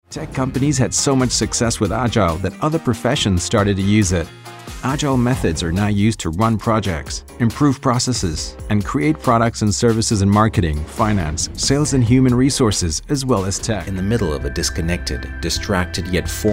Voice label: USA